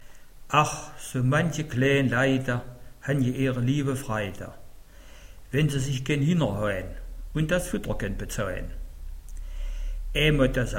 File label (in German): dialekte Mansfeld